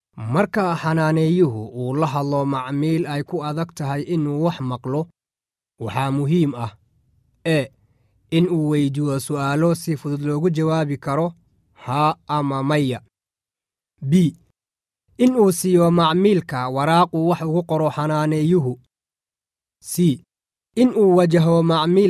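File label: Somali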